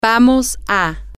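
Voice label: we are going to, Spanish, female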